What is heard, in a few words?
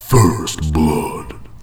First Blood, announcer; skullball